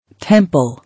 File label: "Temple", English